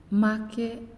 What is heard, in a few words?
"Bone", Naasioi; unitech